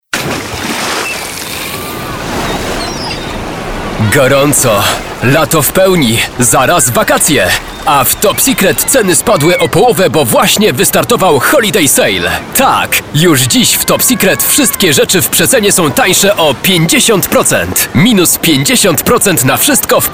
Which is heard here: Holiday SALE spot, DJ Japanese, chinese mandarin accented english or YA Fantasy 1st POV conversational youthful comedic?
Holiday SALE spot